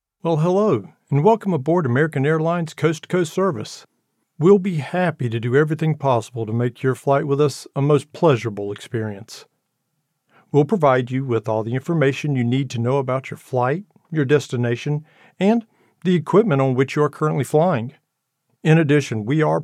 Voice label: E Learning; American Airlines